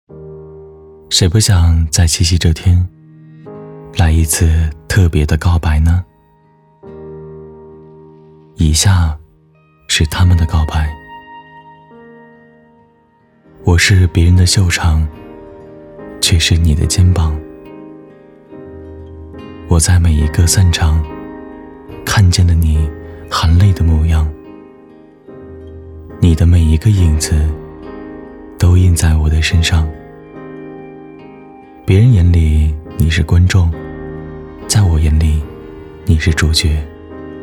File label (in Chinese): 男 宣传片; 谁不想在七夕来一次告白呢